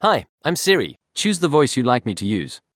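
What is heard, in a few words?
South African English; iOS Sounds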